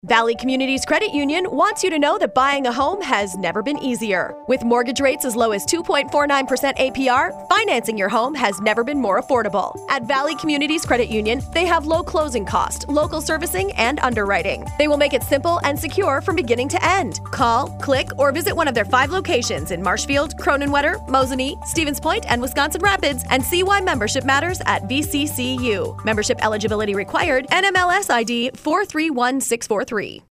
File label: Radio Spot